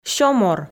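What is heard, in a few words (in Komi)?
"сё мор", Komi, female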